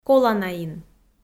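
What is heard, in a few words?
"коланаин", Komi, female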